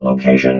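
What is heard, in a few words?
"location", robot voice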